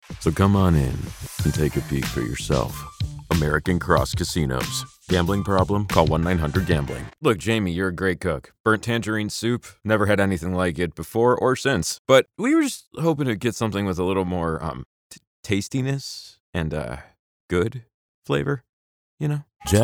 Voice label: commercial